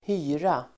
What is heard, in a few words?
"hyra", Swedish